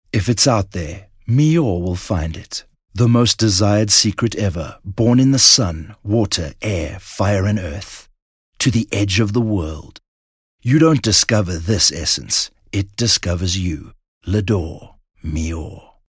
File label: English Softsell